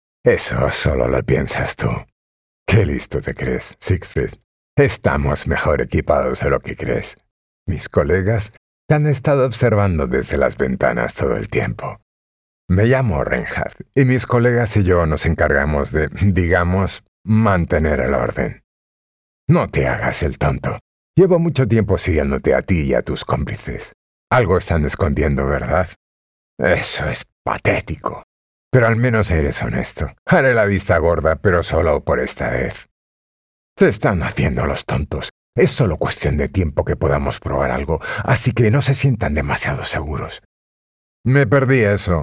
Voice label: spanish video games